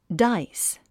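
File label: "dice", English; 発音 dáis ダァイス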